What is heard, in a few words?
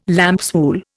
"lambswool", Indian